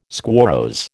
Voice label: "squarrose", American